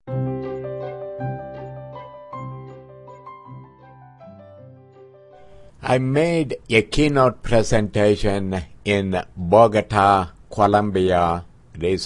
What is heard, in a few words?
bilingual Joke